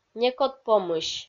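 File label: "некод помысь", Komi-Zyrian, female; kpv audio dict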